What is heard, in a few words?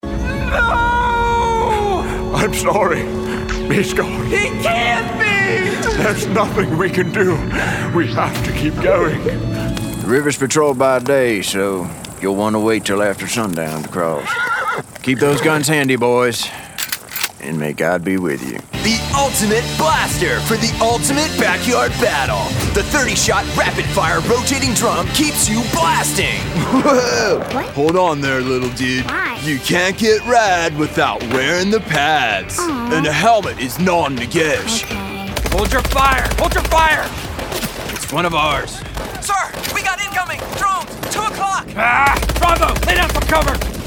Character